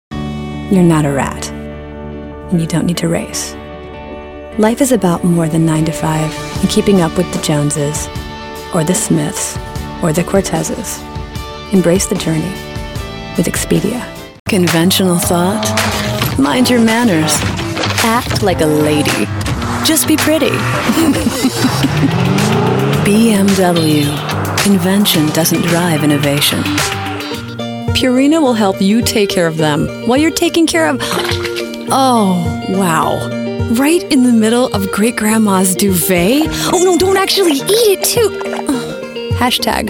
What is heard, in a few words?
COMMERCIAL